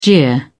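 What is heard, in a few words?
"jeer", British English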